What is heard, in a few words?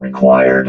"required", robot